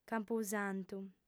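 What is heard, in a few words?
"campusantu", female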